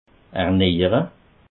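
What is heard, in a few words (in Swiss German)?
"ernejere", Alsatian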